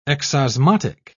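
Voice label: "exosmotic", British English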